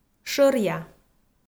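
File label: "шӧриа", Komi, female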